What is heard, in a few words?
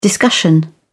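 "discussion", British English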